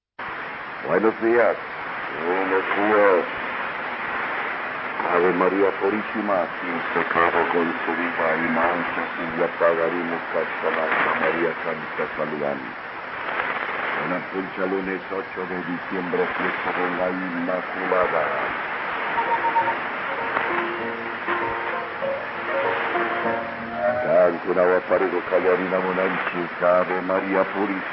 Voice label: Tena 3280 kHz, Ecuador; La Voz del Napo